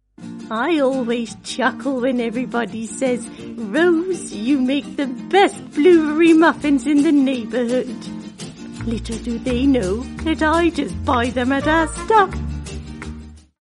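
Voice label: Dutch English, female, commercial, accent of the netherlands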